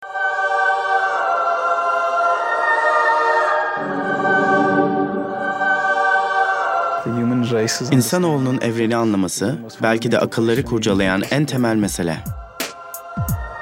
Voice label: turkish male documentary